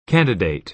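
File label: "candidate", American English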